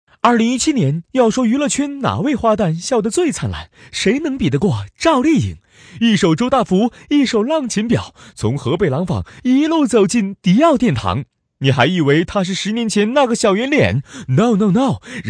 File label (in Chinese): 男; 飞碟说; 赵丽颖